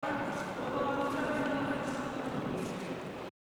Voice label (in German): Stimme in florenzkirchenvorhalle